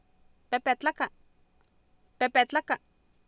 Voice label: "pepetlaka", Oapan, female; Oapan minimal pairs 2000